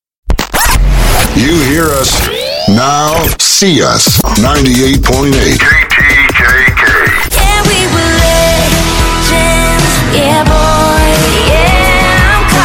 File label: COUNTRY Imaging Sample